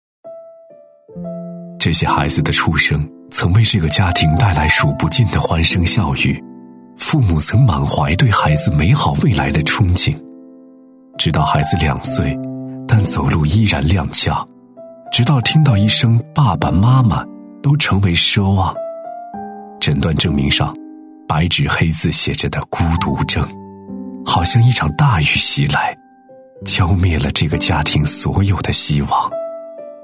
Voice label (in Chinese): 男 走心专题 温暖走心; 大气主流; 质感磁性